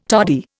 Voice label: "toddy", Indian